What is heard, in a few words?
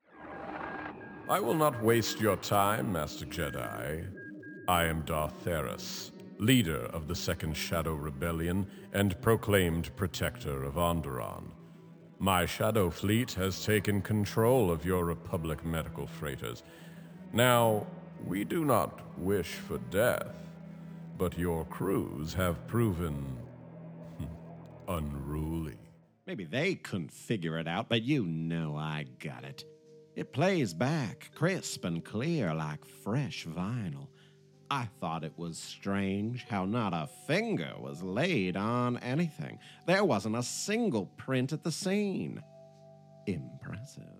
Animation VO